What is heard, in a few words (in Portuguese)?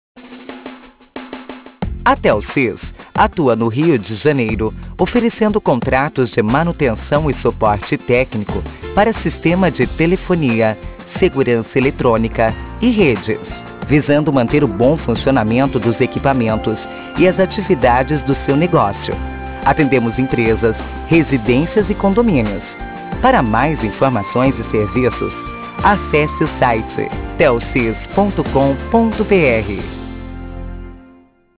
Mensagem Espera; TELSYS